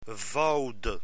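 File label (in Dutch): "vouwde" Dutch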